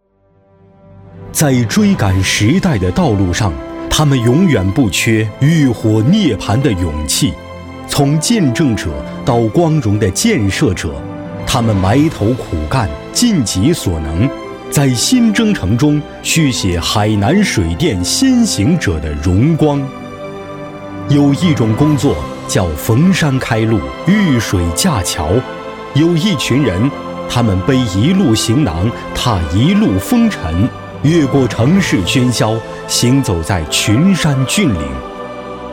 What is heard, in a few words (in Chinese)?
男 专题配音 大气抒情; 海南水电建设